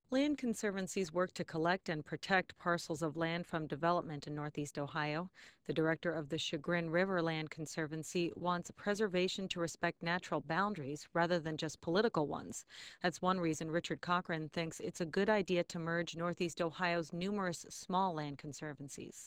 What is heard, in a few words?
Narrator